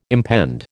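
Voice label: "impend", American English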